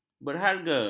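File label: "berharga", Malay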